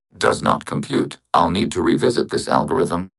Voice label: upset; fertig classic games